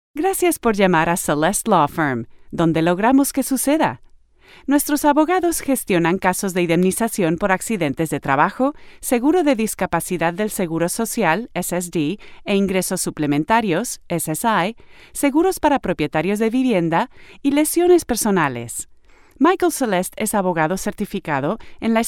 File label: Spanish